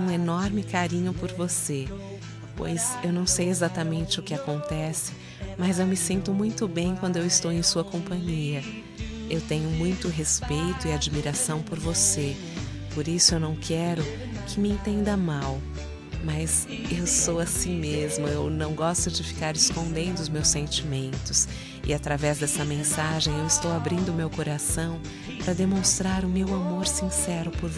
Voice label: Aniversario Namorado da Amiga Feminino, telemensagem

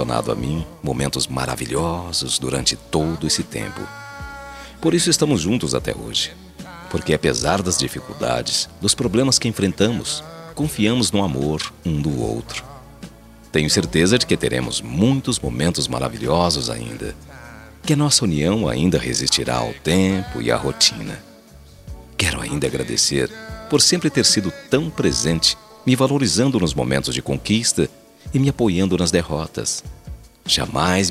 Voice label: ANIV PARA ESPOSA, telemensagem

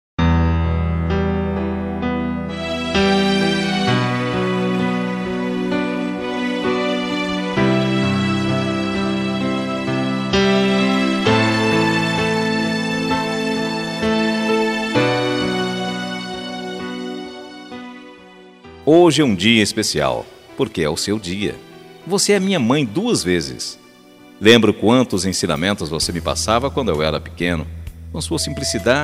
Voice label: ANIV PARA AVo MASC

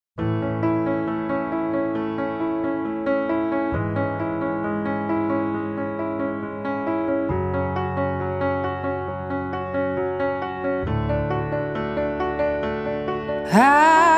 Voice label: AMIGA DISTANTE MASC, telemensagem

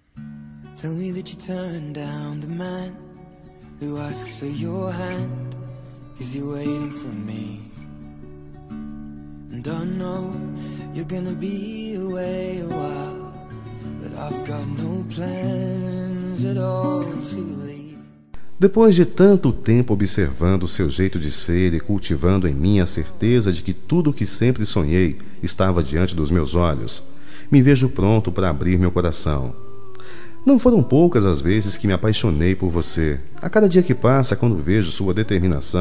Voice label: DECLARACAO MASCULINO, Telemensagem

